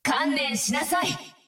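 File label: Japanese; 奥丽莎（守望先锋2）; 终极技能; 観念しなさい！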